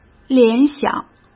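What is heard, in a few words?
"联想", Mandarin Chinese; chinese flashcards; hsk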